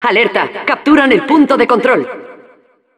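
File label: control point warning, Spanish; Narradora; Respuestas de la Narradora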